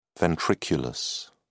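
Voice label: "ventriculus", British English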